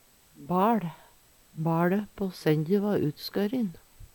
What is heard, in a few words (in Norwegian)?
"baL", Numedalsmål; Substantiv hokjønn; BaLe på senje va utskørin.